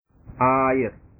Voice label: "air", bahasa

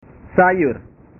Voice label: "sayur", bahasa

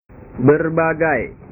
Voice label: "berbagai", bahasa

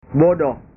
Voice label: "bodoh", bahasa

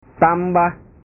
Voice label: "tambah", bahasa

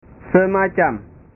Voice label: "semacam", bahasa

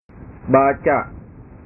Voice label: "baca", bahasa

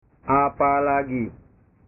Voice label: "apalagi", bahasa

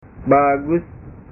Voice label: "bagus", bahasa

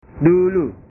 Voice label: "dulu", bahasa

